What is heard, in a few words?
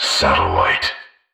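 "satellite", male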